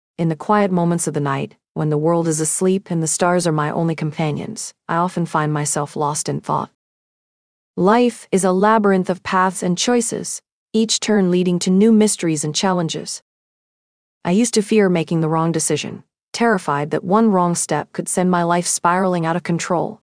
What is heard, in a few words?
voiceover